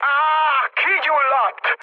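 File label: on fire, mood med, Hungarian; speech; War Thunder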